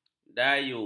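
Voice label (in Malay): "dayu", Malay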